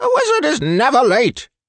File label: wizzard taunt confirm